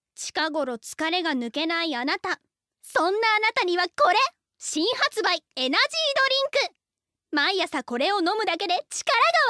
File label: narration